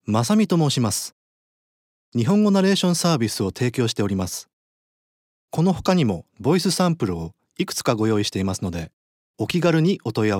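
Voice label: Japanese, narration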